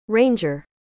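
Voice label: "Ranger", American English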